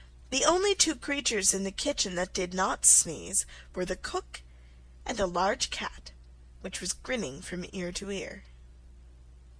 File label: alice in wonderland slow